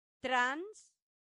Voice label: "trans", latin; santillana

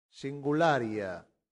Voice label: "singularia", Latin; santillana

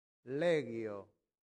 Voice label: "legio", latin; santillana

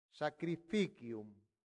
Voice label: "sacrificium", latin; santillana

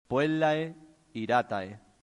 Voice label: "puellae iratae", Latin; santillana

